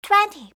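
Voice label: "twenty", English; eng suuji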